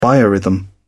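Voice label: "biorhythm", British English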